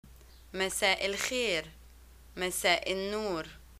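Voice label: greeting evening, Egyptian Arabic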